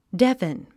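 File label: "deafen", English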